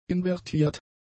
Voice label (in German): "invertiert", german; adjectives